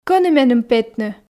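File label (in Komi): кӧні меным петны, Komi, female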